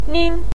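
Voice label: "nin", Mandarin Chinese neutral tone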